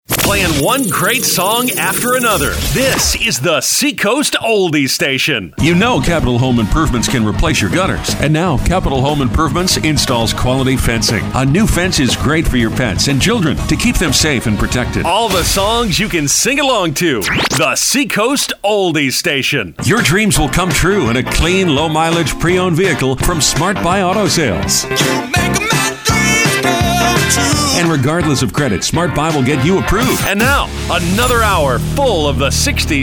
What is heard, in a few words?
voice over; Benztown